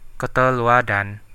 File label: thanks, central dusun